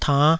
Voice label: "tann5", Taiwanese